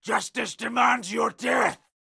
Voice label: Melee Miniboss Mid Combat Line

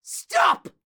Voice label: Caster Elite Alert Line, dialogue

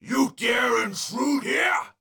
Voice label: Unarmed Elite Alert Line, dialogue

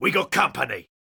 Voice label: Pirate Elite Male Alert Line; NPC; Heist Thug Spotted

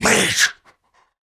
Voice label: Cannibal Male Taunt; Trunk Act One Monster

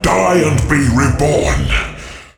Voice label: Midfight Mono; NPC; Malachai Combat

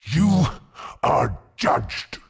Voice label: Mid Fight Taunts; Voll; NPC; Voll Combat

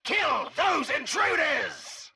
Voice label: Scientist Caster Chokepoint Line

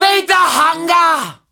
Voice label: Female Taunt, Cannibal, dialogue

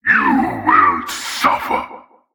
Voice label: Male Alert Line; NPC; Heist Cultist Spotted

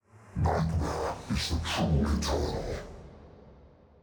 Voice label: Heal Stereo; Malachai

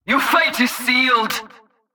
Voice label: Female Alert Line, Cultist Spotted; NPC; Heist

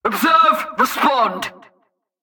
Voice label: Female Patrol Line; NPC; Heist Cultist Captain Patrol

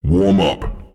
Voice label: Warm Up, PvP Announcer

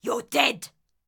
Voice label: Ranged Female Alert Line, Thug Spotted

